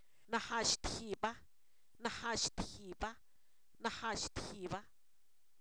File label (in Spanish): yo solo, Zapoteco, san miguel albarradas; pronombres libres